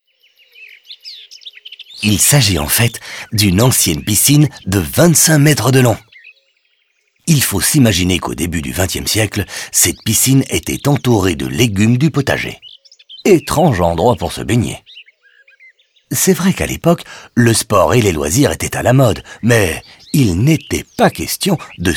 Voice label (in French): audioguide fr enfant; jardin; modave